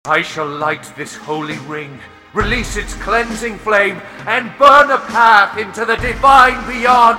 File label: regret speech; h2dialogue